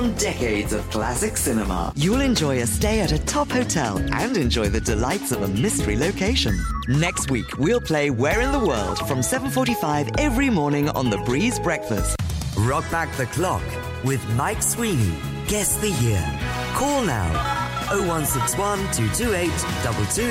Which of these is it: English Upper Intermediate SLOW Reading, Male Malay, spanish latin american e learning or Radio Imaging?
Radio Imaging